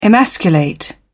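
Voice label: "emasculate", British English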